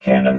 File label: "cannon", robot